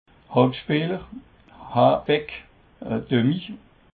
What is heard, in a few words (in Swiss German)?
"halbspeeler", alsace